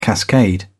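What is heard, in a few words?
"cascade", British English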